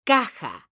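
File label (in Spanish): "caja" Spanish, female; mekanta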